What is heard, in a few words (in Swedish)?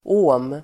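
"ohm", Swedish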